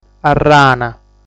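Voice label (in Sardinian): "Arrana", Sardinian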